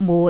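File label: "mut6", Cantonese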